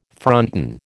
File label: "fronton", American